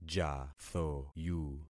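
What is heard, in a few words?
"jathoyu", concatenated syllables; Affixing experiment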